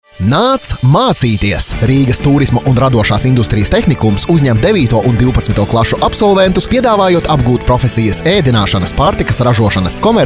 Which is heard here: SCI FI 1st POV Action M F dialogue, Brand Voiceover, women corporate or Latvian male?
Latvian male